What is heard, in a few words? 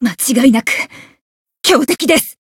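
encounter fear, Japanese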